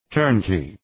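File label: "turnkey", English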